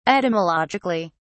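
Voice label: "etymologically", British English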